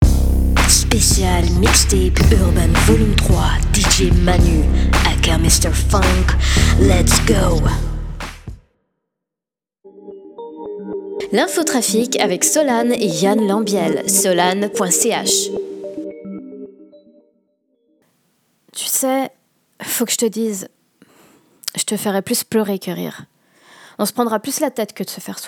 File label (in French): Femme